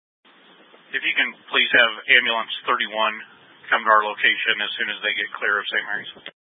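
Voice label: Incident Tactical 2, Scanner audio recording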